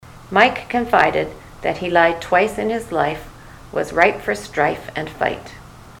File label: mike lied twice, ontario